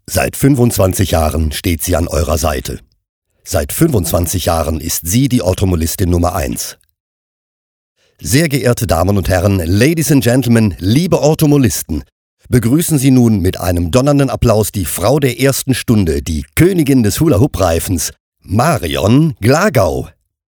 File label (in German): Anmoderation